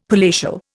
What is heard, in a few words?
"palatial", Indian; Dictionary